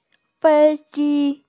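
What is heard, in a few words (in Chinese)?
"fei1ji1", Mandarin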